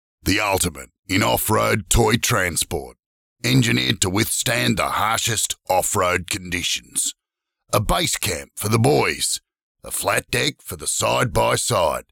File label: Aussie Character